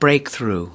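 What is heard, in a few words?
"breakthrough", US English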